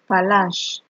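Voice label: "palash", Nigerian Pidgin, female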